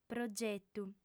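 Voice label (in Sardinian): "progetu", female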